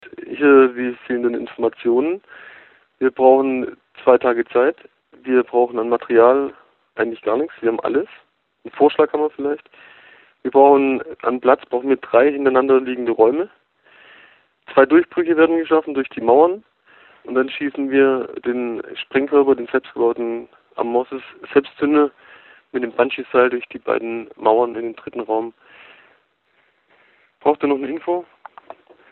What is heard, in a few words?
Voicemail